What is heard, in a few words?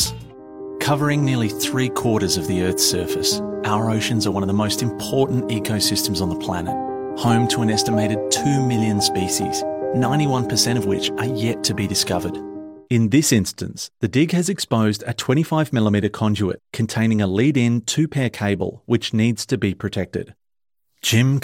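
Australian English, male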